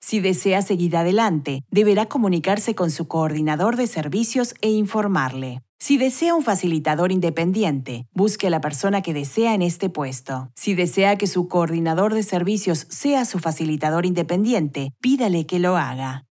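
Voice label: Spanish, e-learning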